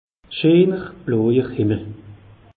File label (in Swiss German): "scheener himmel", alsace; meteo